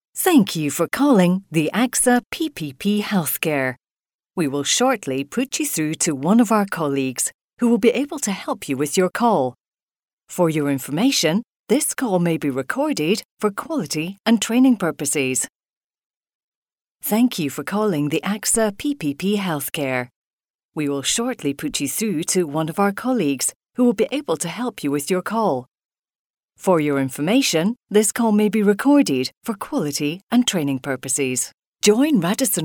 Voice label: telephone